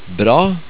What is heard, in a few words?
"Bra", Norwegian